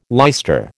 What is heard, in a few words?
"leister", American